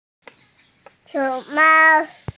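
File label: "xiong2mao1", Mandarin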